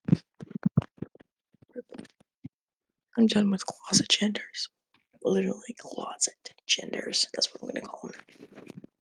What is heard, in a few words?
voice message; Discord; Outcast Gaming